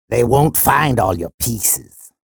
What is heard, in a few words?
chatter positive; sorlag; frag